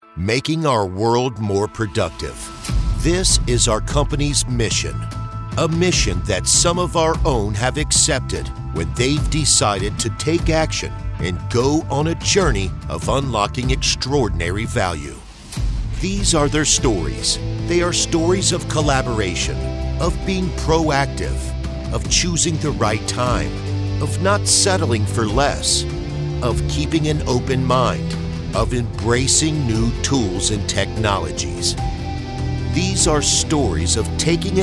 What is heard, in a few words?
trailer; Productivity Heroes